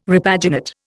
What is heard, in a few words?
"repaginate", Indian